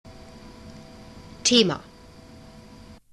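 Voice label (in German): "thema", German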